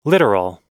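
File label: "literal", English; oxford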